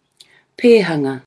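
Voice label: "pēhanga", Māori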